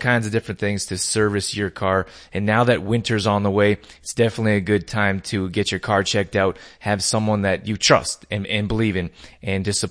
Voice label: Tire shop ad; tire shop advertisement